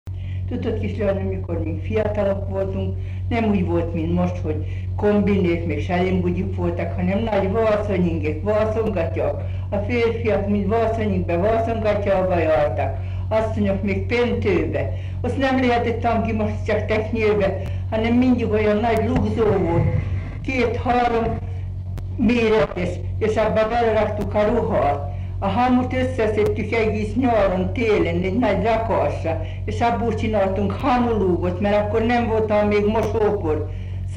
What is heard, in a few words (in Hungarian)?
nyelvjárás gyűjtések